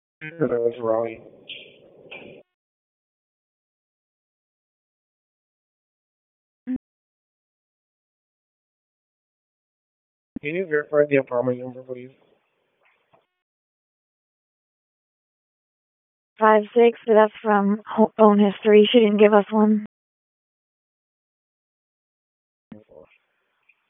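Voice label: Police District Dispatch, p25; Raleigh Police Southwest District Disp; wake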